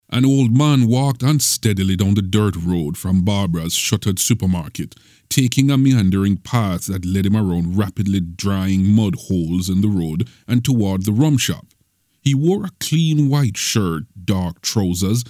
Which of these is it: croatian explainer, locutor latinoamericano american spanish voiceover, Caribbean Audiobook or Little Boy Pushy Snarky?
Caribbean Audiobook